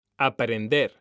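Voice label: "aprender", Spanish, male; mekanta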